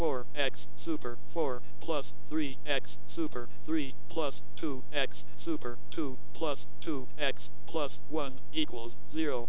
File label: quartic np m 8khz